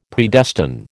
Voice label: "Predestine", American